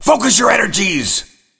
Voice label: Exec Generic, Executioner Orc Male